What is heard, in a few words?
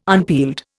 "unpeeled", Indian